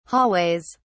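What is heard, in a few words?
"hallways", British English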